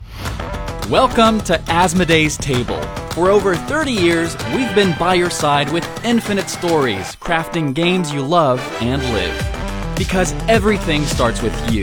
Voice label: Pub US; Asmodee